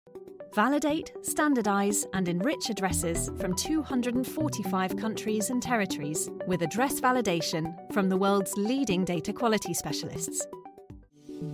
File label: corporate